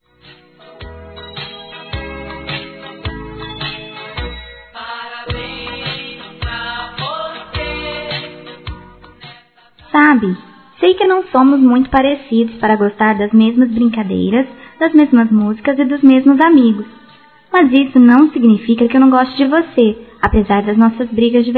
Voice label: Aniv. Irmão Mais Velho Feminino, Telemensagens; Aniversário Infantil

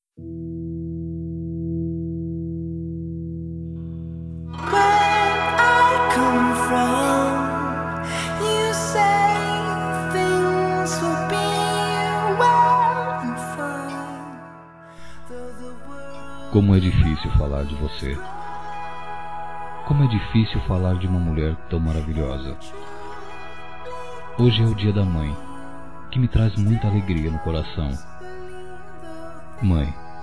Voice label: Dia das Mães Geral, Masc; Telemensagens 2017

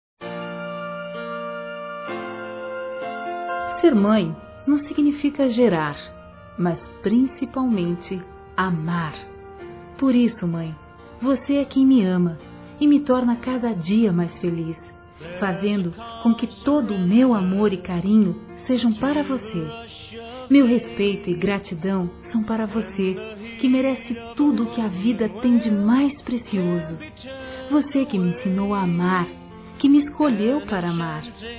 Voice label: MÃE ADOTIVA F, Telemensagens, Dia das Mães; Telemensagens 2017

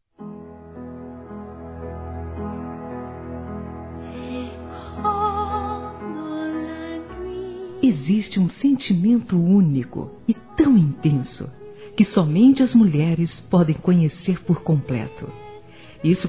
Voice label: HOMENAGEM A MÃE F; Telemensagens 2017

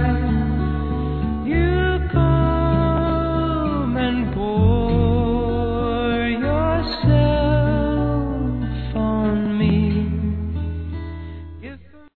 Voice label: Aniversário Avó Infantil Masculino; Telemensagens 2017; Aniversários

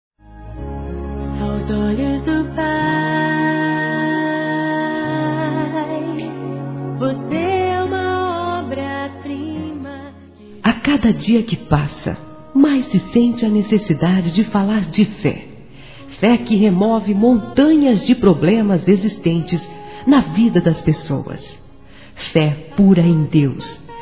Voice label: Evangélico Aniversário Geral Feminino; Aniversários

